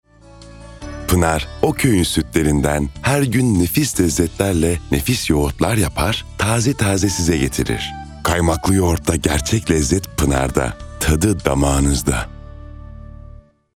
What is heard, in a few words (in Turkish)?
Sicak Duygusal